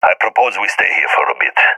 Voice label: request hold, balkan; vo; balkan epic

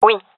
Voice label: affirmation, gendarmerie fem; vo; agents

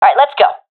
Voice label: round losing, professional fem; vo; Counter Strike Model Pack; CS:GO

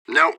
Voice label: radio bot reponse negative; fbihrt

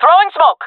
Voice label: throwing smoke, jungle fem; player vo; Counter Strike Model Pack; CS:GO

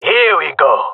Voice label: round start, male; player vo; Counter Strike Model Pack; CS:GO

